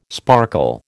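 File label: "sparkle", American English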